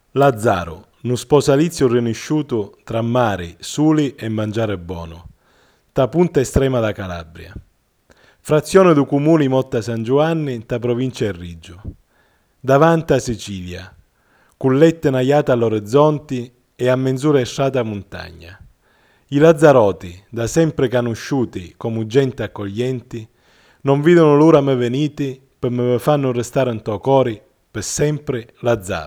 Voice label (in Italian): Calabrisi